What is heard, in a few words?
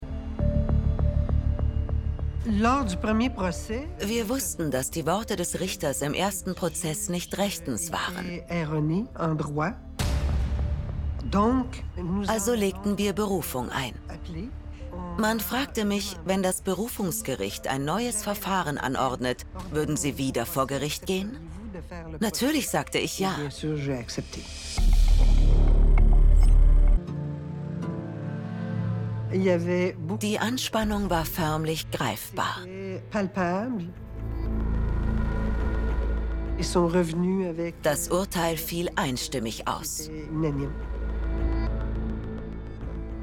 voice Over; VOICE OVER SAMPLE 2023